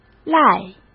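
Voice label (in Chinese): "赖", Mandarin Chinese; hsk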